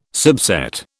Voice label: "subset", British

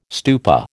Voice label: "stupa", American

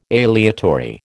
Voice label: "aleatory", American

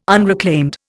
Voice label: "unreclaimed", Indian

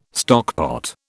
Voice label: "stockpot", British

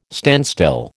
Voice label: "standstill", American

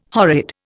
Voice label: "horrid", Indian

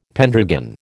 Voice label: "pendragon", American

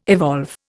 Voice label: "evolve", Indian

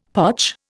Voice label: "potch", Indian; Dictionary

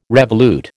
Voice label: "revolute", American

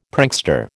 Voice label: "prankster", American

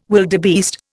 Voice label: "wildebeest", Indian

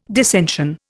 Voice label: "dissension", Indian

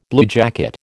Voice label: "bluejacket", American

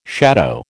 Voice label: "shadow", American

